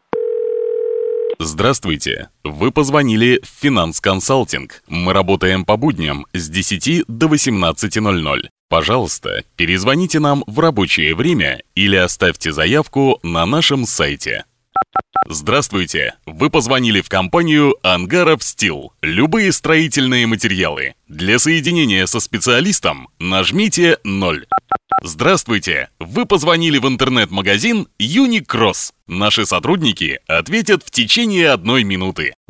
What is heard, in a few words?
IVR; 3 in 1